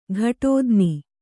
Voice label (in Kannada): "ಘಟೋದ್ನಿ", Kannada